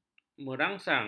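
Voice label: "merangsang", Malay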